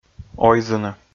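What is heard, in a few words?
"ойзыны", Komi, male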